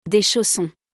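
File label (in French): "Des chaussons", French; vocabulaire; le lexique de l'habillement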